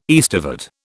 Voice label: "aestivate", British

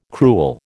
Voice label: "crewel", American

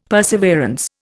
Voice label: "perseverance", Indian; Dictionary

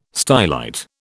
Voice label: "stylite", British

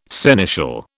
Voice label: "seneschal", British

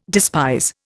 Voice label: "despise", Indian

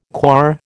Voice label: "coir", American

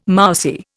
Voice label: "mousy", Indian

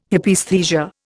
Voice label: "Hypaesthesia", Indian

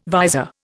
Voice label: "visor", Indian

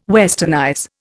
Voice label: "westernize", Indian

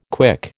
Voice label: "quick", American

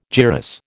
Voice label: "gyrus", American

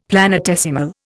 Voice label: "planetesimal", Indian; Dictionary

